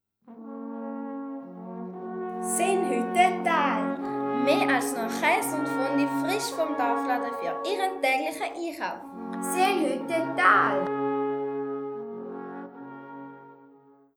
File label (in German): radiowerbung